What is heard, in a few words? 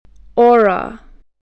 "aura", english